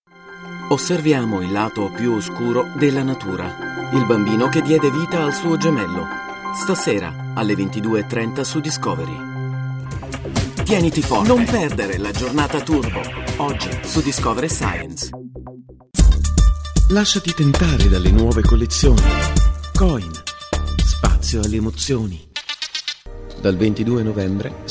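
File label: Italian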